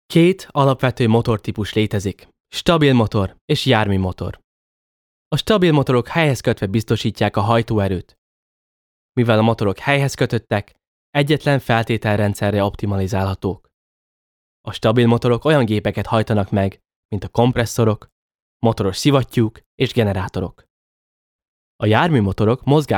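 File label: Hungarian, Corporate; GoLocalise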